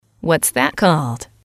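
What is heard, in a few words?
what is that called, English, female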